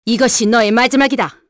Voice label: Skill, kr; voices; heroes; Seria; kingsraid